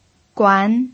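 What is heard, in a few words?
"guan" second tone, pinyin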